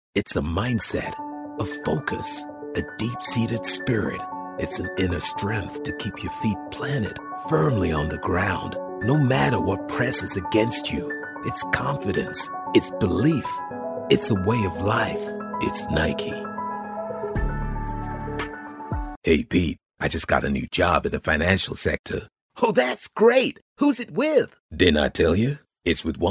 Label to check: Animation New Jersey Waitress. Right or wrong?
Wrong